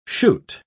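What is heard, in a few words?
"shoot", English word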